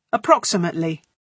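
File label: "approximately", British English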